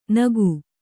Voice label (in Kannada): "ನಾಕು", Kannada